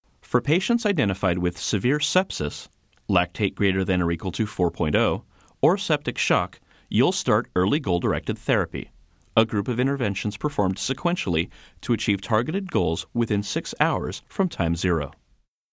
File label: Narration; SepsisEGDT